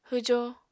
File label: "hwjo", Apatani